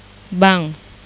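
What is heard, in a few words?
"bank", Indonesian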